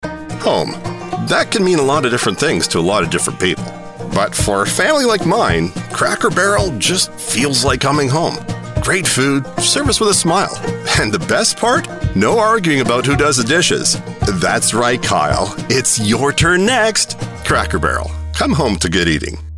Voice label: Commercial; CRACKER BARREL

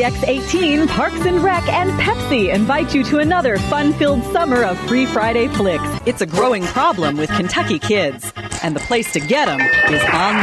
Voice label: Promo News

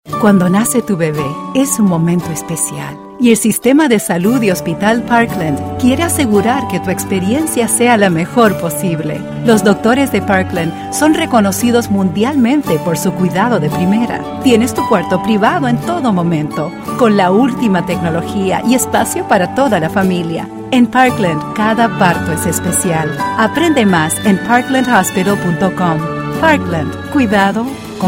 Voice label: Spanish Radio; Spanish - Commercial; Parkland Hospital

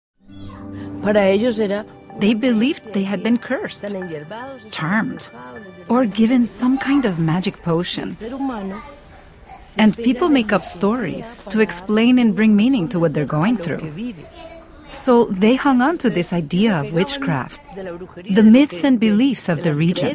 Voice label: Alzheimer's Hispanic Accented English; NOVA

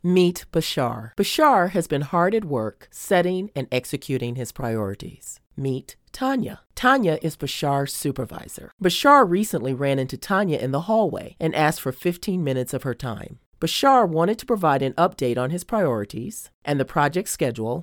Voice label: Explainer Video; Bechtel Touch Points Module

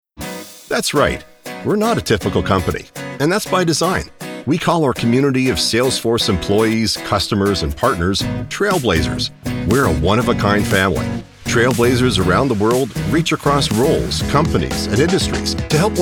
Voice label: Corporate Demo; SALESFORCE

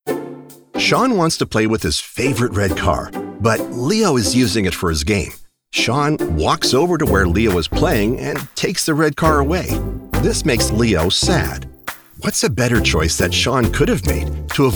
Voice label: eLearning, KIDS

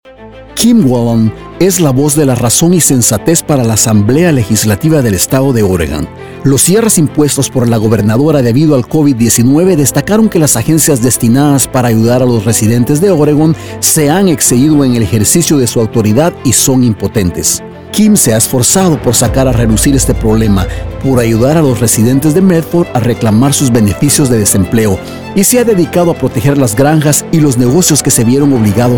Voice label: Political ad

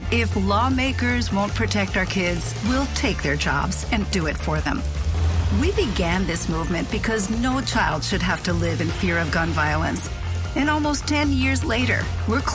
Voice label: Political ad, Eng; Fight Gun Violence

